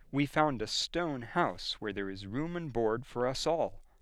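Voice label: General American accent; "We found a stone house where there is room and board for us all.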